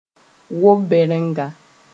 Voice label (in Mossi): "wob-bɛrenga", moore